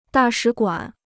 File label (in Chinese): "大使馆", Chinese